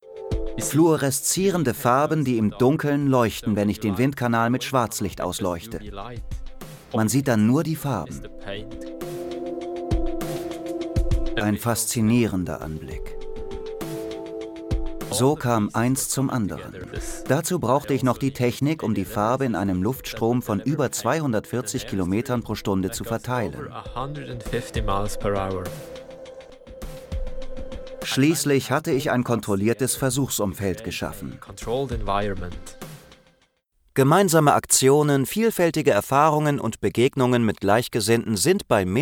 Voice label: Medley Off Voice Over